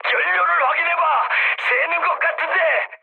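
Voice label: Korean tank damaged mood high; War Thunder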